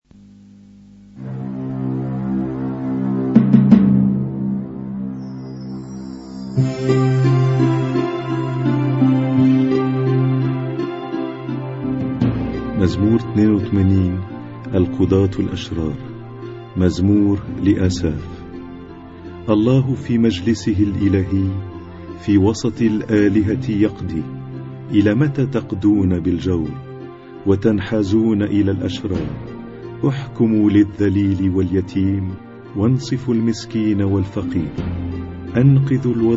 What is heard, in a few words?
Arabic Psalm Zaboor